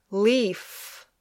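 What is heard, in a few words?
"leaf", English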